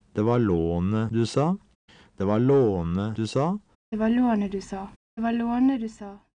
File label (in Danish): Norske ordtoner tonelag 1 og tonelag 2